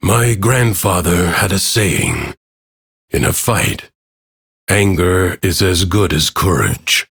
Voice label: Ambient Bark Angry; Duviri; Teshin

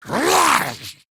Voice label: Attack Effort Ghoul, en; Grineer Ghoul Expired; Battle Barks

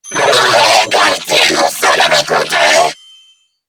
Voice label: Player Spotted Grineer Female; New War; Narmer

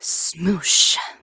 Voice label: Idle Barks, en; Aoi

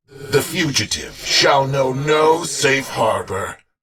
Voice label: Patrol, en; Warframe; Battle; Dax; DaxKnight

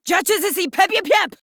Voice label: Player Spotted, Female; en; Modular Corpus; Battle Barks

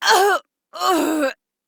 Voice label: Death Female; Warframe; Modular Corpus Female; Battle Barks; en

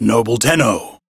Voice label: Crew Greet, Male; Warframe; Railjack Crew; Arbiters Hexis

